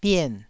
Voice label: "bien4", taiwanese